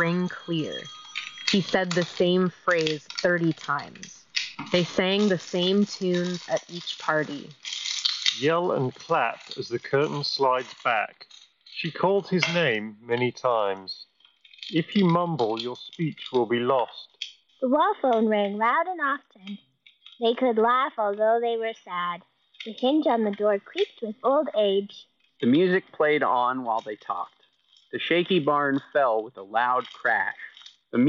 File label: Office microphone sample; Bose Quietcomfort Ultra Earbuds